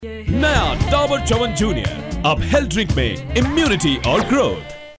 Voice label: male voice